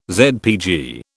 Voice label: "ZPG", British